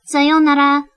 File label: "Goodbye", Japanese